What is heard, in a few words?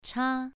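"cha" Mandarin, second tone, pronunciation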